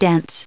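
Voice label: "dense", US English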